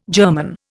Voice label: "germon", Indian